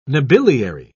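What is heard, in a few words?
"nobiliary", British English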